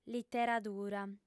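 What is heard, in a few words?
"literadura", female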